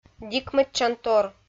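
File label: "дикмӧдчантор", Komi, female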